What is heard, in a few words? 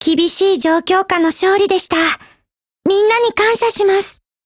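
Japanese, Voice; GF Alarm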